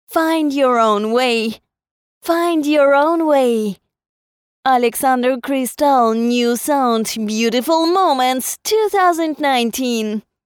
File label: Short English Jingle Voice Version